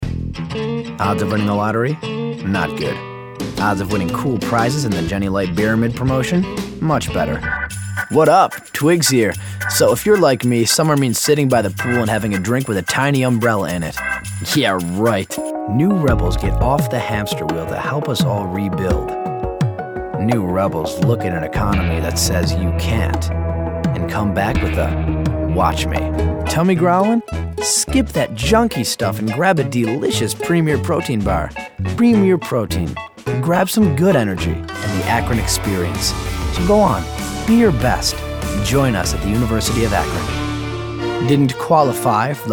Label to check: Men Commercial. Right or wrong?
Right